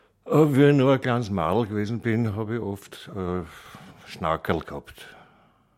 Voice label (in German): ostmittelbairisch wien; sprachliche vielfalt